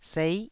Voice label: "sei9", taiwanese syllable